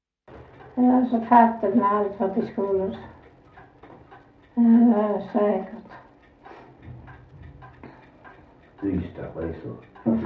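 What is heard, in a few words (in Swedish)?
Sövde Skåne, dialekter; Folkminnen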